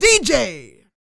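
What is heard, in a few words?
female spoken, R&B Dance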